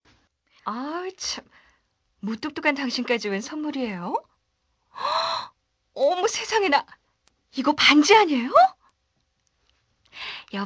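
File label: Korean, female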